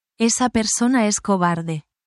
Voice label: spanish, spanish phrases negative language